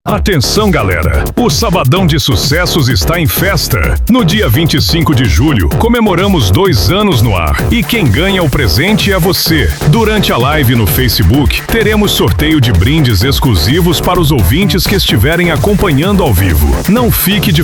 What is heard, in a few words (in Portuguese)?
vinheta radio aniversario programa